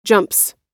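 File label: "jumps", American English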